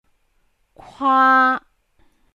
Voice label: "kwaa1", cantonese